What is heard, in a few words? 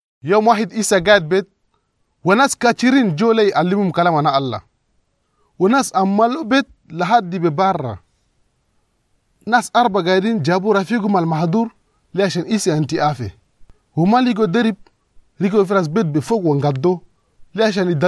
Arabic Chadian; Jésus guerrit un paralytique; Lowered through the Roof